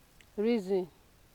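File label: "reason", Nigerian Pidgin, female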